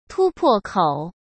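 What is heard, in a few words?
"突破口", Mandarin Chinese; chinese flashcards; hsk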